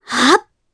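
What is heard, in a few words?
Vox Casting Japanese; Reina; kingsraid audio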